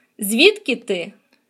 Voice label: dialogue, Ukrainian